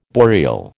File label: "boreal", American